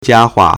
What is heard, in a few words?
"jia1 hua4", Chinese